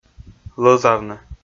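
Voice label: "лӧзавны", Komi, male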